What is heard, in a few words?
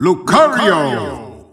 Dutch Announcer; Lucario; SSBU